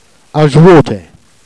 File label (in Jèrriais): "ajouotez", Jèrriais